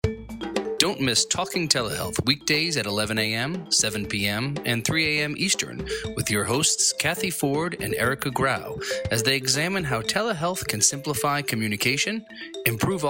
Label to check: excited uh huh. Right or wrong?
Wrong